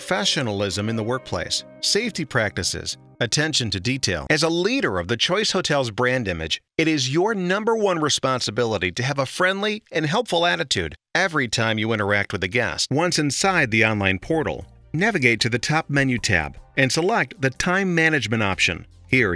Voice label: eLearning Tutorial